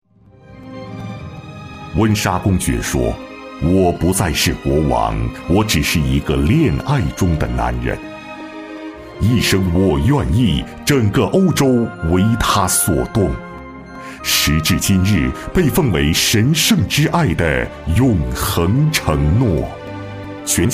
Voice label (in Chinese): boy, guanggao; Chinese; hunsha